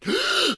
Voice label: Voices Character Male

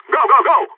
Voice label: Radio Lets go; Phoenix

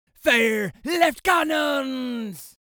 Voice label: Voices Jamaican Fire Left Cannons

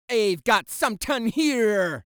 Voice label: Voices Jamaican

